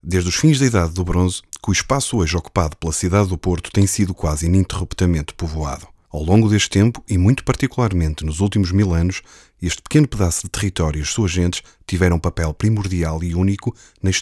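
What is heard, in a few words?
Narration, Portuguese, European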